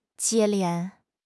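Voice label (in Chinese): "接连", Chinese; HSK6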